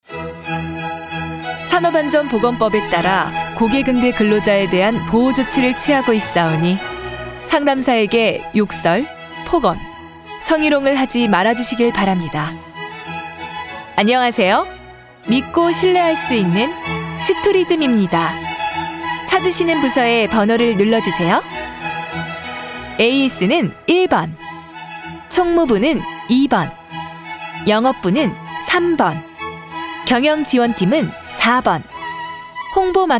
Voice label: voiceover